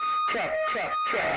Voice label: "check check check", voice

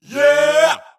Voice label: "yeah", group of men; ooh ahh

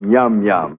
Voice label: "yum yum", announcer

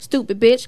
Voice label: stupid bitch female; Voice

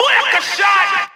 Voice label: "booyakashot", Voice

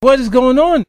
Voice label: what is going on, male; questions

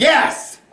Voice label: "yes", tourettes guy

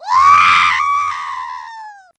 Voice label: "wow" nongender; ooh ahh

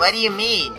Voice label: what do you mean, male; questions

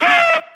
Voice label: "err", voice; ooh ahh

